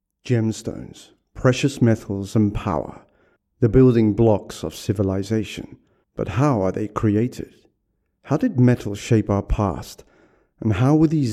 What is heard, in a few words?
Narration Gem Stones VO only, Documentary Promo Gem Stones